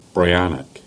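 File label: "St Agnes", Cornish; place-names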